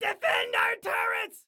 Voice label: Defend Turrets, Excited Guy